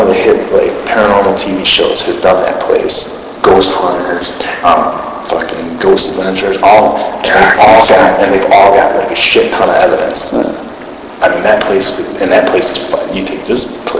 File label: Unexplained male whisper (Cocky Cocky)